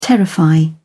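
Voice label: "terrify", English, British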